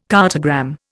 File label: "cartogram", Indian